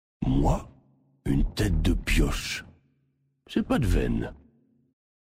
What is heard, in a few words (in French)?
Male Blague